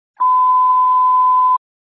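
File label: Fire Dispatch, Scanner audio recording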